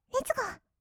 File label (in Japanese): "レッツゴー", kawaii voice; ASMR; 1200 kawaii voice!!; 音ゲー